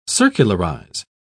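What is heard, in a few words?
"circularise", British English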